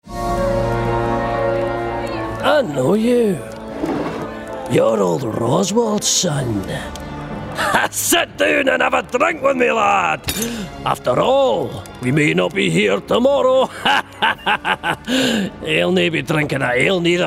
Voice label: CHARACTER Dwarf Scottish